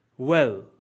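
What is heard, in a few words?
"well", English; grade1; water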